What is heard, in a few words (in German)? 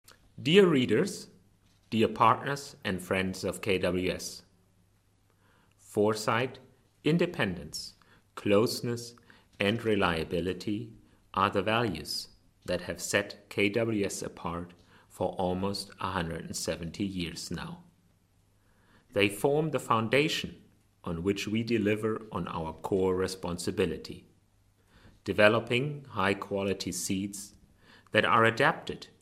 Aufnahme Englisch